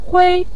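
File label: "hui", Mandarin Chinese, neutral tone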